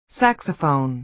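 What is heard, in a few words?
"saxophone", American English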